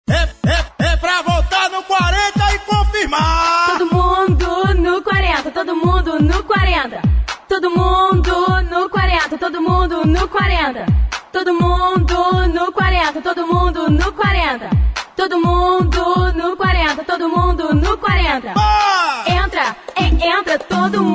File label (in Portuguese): campanha política